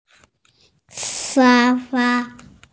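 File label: "sha1 fa1", Mandarin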